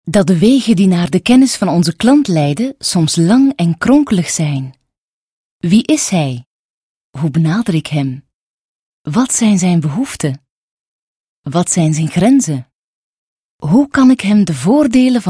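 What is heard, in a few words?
Flemish, female, corporate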